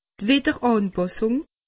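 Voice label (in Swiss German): "widderanpassung", Alsatian; medecine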